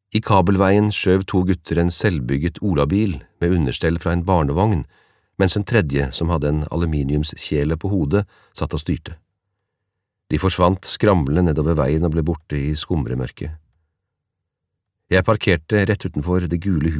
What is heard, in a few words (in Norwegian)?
no lydbok; mann